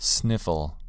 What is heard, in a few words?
sniffle